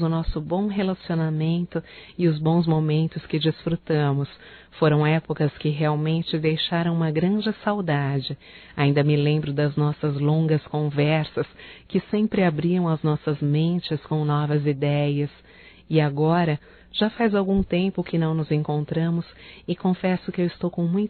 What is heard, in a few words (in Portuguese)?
SAUDADES AMIGO, Telemensagens, Só Voz, fem